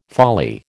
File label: "folly", American